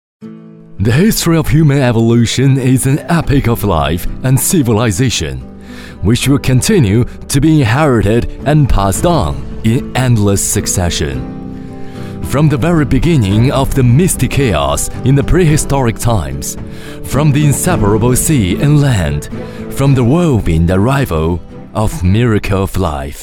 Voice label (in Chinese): 男英 外语 国内英语 英音 大气; 英音基因链